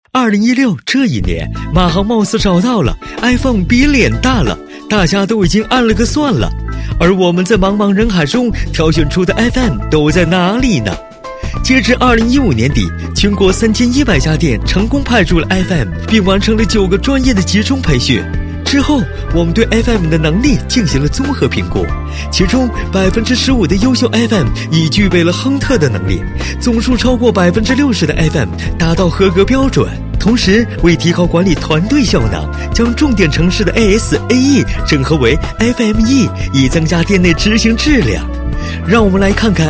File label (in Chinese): 男 病毒, 年轻浑厚, 国语男声配音; 飞碟FM